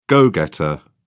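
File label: "go-getter", British English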